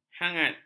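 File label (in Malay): "hangat", Malay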